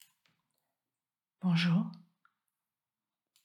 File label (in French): Bonjour Timide